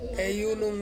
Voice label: "unum" to drink, Ansus

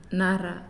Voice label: "One", Naasioi

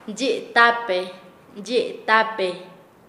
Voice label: Nasa Yuwe; viga; paez

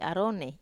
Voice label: "aro", Wamesa

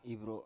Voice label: "ivro", Biak

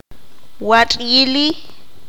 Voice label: "bonito", Q'anjob'al

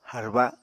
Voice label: "harba", apatani

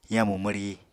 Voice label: "yamu mwri", Apatani

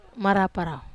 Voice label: "marapa rau", Wamesa

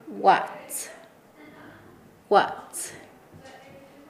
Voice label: Nasa Yuwe; arepa

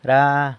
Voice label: "ra" all; san lucas quiavini

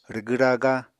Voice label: "rwgw-raga", Apatani

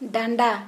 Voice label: "Waist", Santali

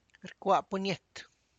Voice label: "punches", tlacochahuaya

